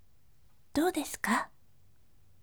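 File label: "doudesuka", gentle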